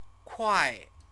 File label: "kuai", Chinese